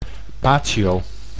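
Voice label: "patio", Dutch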